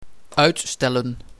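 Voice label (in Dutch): "Uitstellen", Dutch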